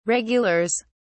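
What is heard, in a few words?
"regulars", British English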